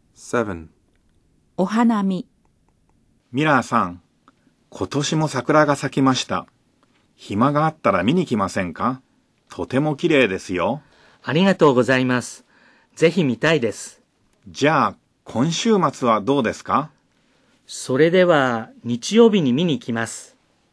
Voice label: Dialog